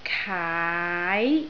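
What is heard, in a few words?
"kaai2", Cantonese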